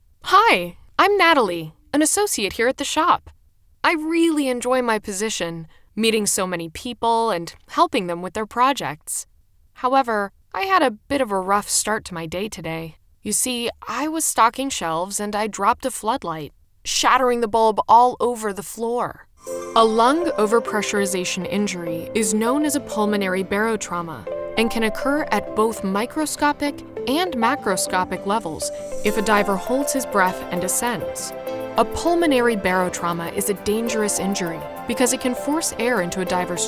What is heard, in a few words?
eLearning